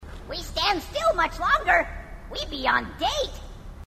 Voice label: grunt; h2dialogue; grunt crazy